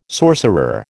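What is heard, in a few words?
"sorcerer", American English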